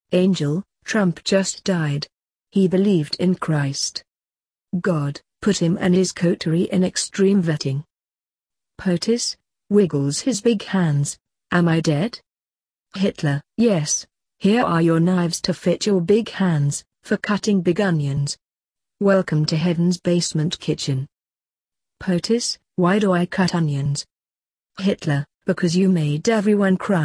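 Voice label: English Female Read Aloud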